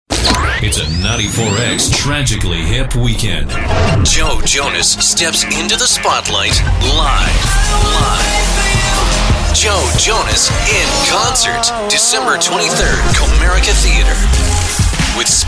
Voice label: Promo; TaDa

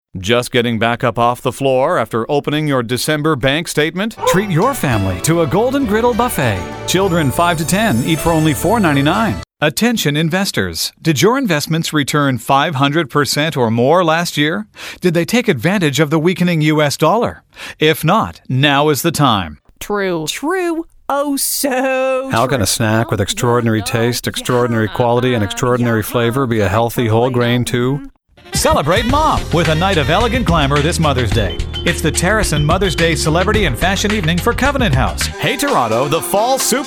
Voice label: commercial; TaDa

